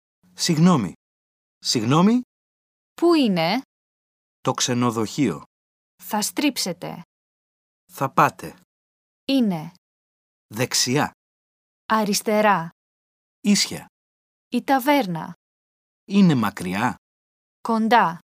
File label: Greek